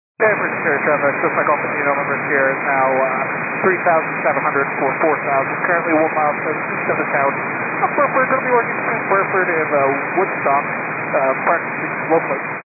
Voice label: 122.925 MHz; scanner radio